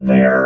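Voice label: "there", robot voice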